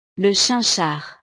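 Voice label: "le chinchard", French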